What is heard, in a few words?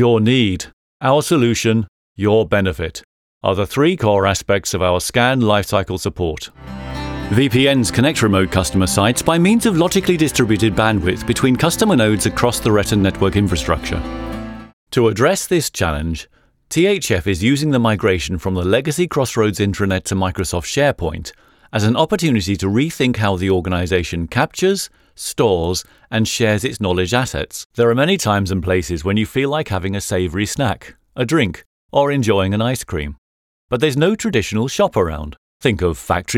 Explainer voicereel